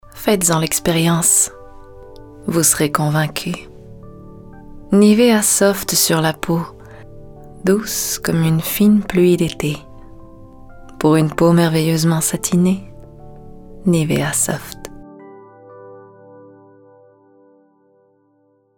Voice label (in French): voix femmes; nivea